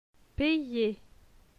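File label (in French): "Payer", French; phonetique; les voyelles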